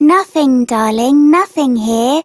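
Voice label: Child Rhymes Fear Child Female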